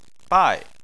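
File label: "bai" Chinese, fourth tone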